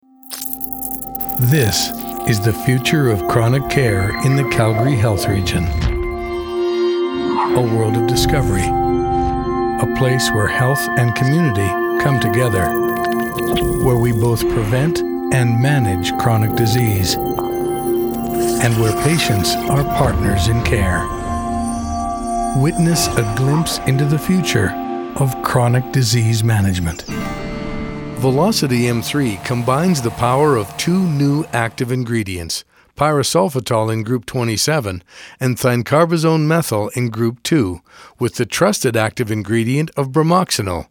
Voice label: INDUSTRIAL NARR